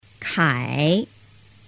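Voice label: "kai", Chinese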